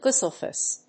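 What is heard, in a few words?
"gooseflesh", English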